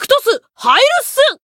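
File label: Voice variable chant, Japanese; ctos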